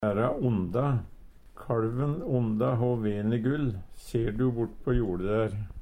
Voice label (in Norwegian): vaera onda, Numedalsmål; Verb; Kalven onda ho Venegull ser du bortpå jorde der.